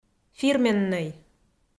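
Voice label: "фирменнӧй", Komi, female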